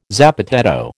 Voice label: "zapateado", American